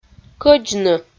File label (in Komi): "кӧдзны" Komi